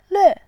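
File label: "lve", Mandarin, tone 4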